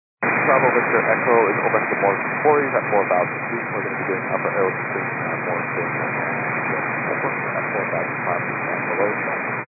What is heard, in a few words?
122 925MHz, scanner radio